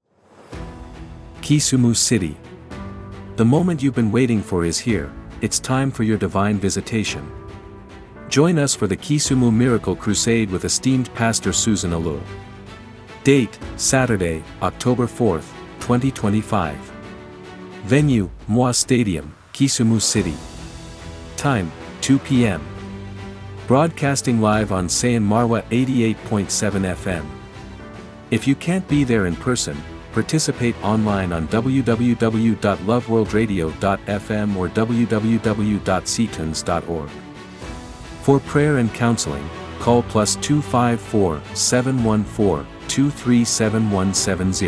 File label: radio jingle; KISIMU